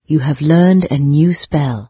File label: newspell, narrator, en-UK; Fate